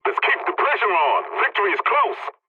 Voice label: pilot tickets lead continue ally, english; War Thunder